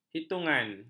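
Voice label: "hitungan", Malay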